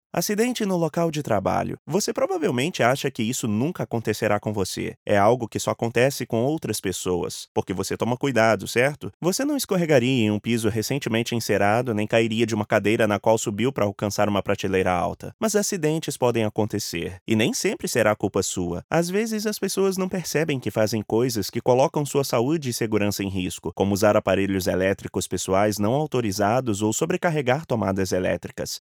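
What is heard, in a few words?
Brazilian Portuguese, Corporate; GoLocalise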